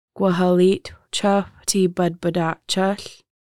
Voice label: Please bless our children gʷəhəlitxʷ cəxʷ ti bədbədaʔcəɬ, Lushootseed; family activity spring